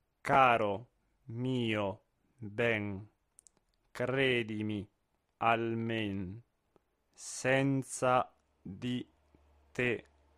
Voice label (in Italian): monotono; Caro mio ben